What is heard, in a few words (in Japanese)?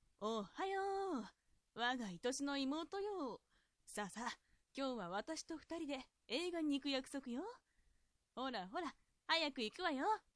josei